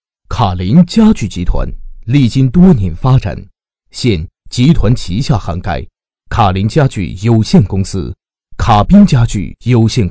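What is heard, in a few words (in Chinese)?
国语 男声 宣传片 家具宣传片 浑厚沉稳 大气浑厚磁性 沉稳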